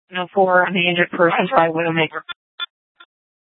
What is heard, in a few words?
Sheriff, scanner